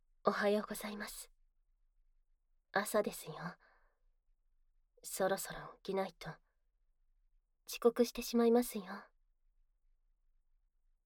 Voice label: 朝、お耳ふーふー囁き 効果音なし; 手コキ; 息子視点

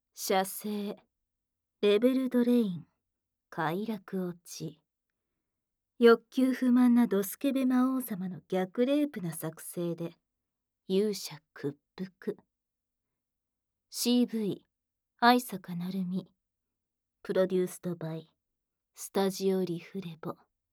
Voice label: タイトルコール, ASMR

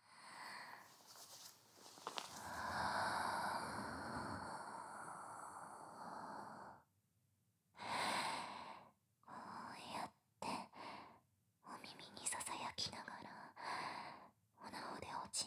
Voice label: 囁きながらオナホコキ

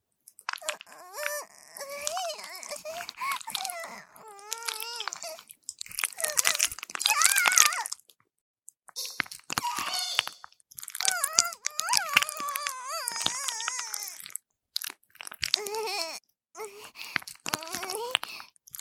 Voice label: 挿入, ASMR

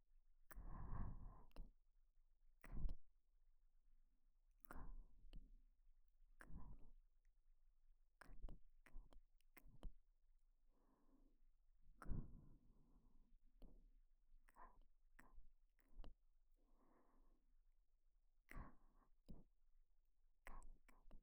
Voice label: 右耳囁きカリカリ

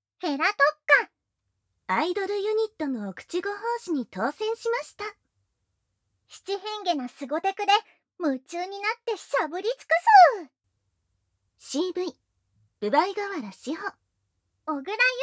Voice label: タイトルコール, ASMR

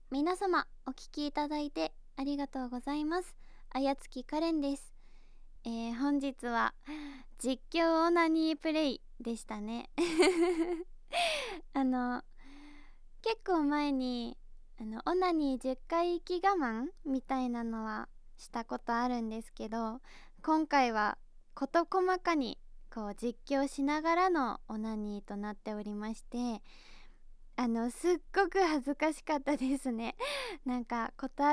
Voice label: フリートーク; 第6話Part2-実況オナ後フリートーク

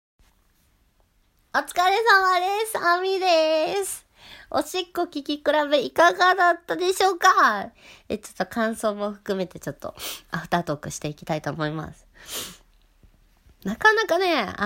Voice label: エンディングトーク, ASMR

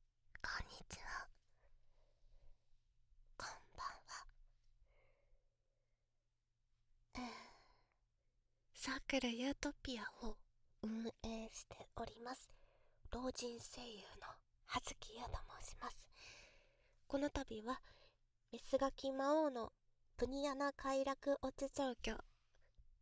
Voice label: フリートーク

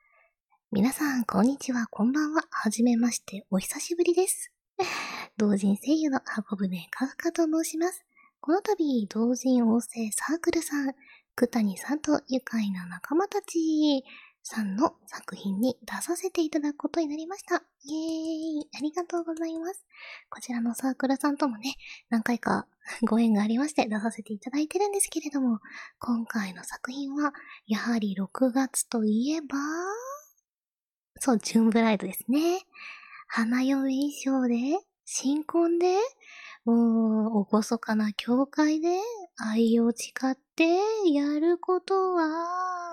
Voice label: 宣伝用ボイス

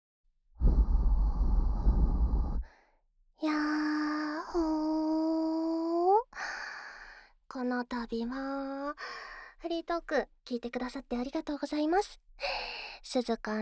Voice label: フリートーク

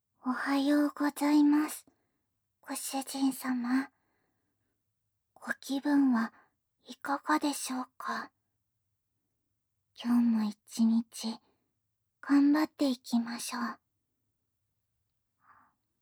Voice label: 目覚ましボイス

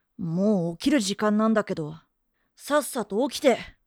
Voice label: アラーム目覚まし, クール

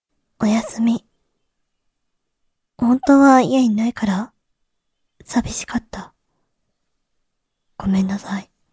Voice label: 日曜 妹, おやすみ

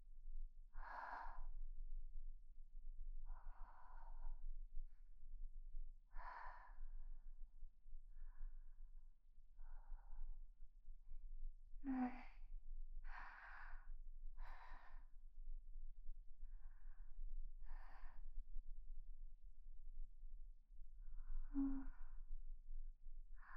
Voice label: 添い寝

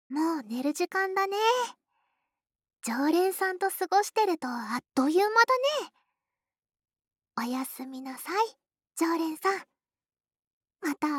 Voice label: シチュエーションボイス; 和み処 一番星～距離感の近い甘えん坊な仲居『月さん』が一生懸命ご奉仕する癒しの 一日～

